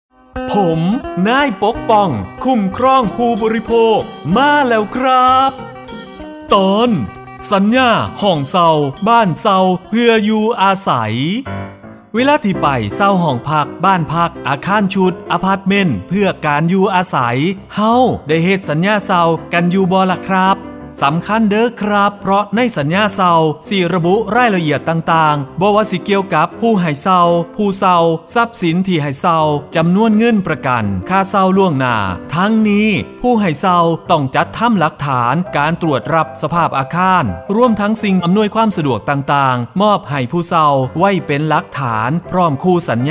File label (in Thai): สปอตวิทยุ ภาคตะวันออกเฉียงเหนือ; สื่อประชาสัมพันธ์; สคบ.; สำนักงานคณะกรรมการคุ้มครองผู้บริโภค